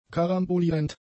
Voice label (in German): "karambolierend", German; adjectives